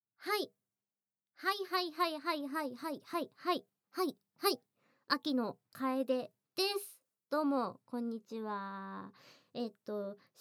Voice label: フリートーク

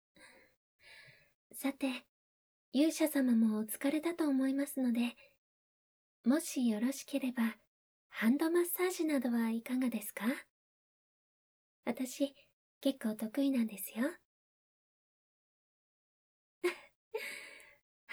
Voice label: ハンドマッサージはいかがですか?, ASMR

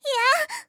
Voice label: "いや…", 小悪魔; ハイグレボイス集

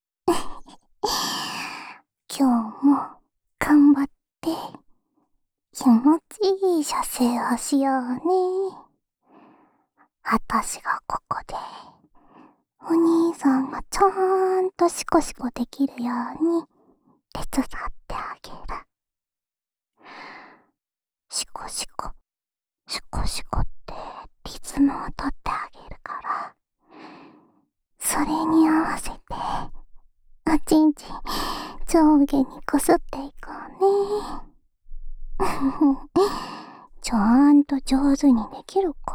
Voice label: シコシコボイスループ（左耳）, ASMR; オナサポ用シコシコボイスループ（左耳）

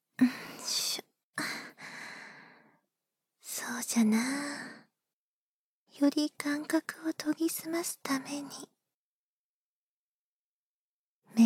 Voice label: 尻尾耳かき（囁き重視編集 効果音あり大きめ）, ASMR

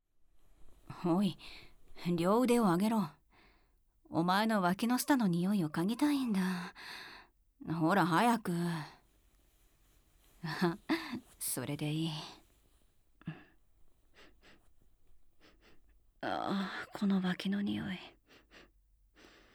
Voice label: 全身舐め－フェラ, ASMR

